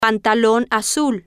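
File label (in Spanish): pantalon azul, Spanish, female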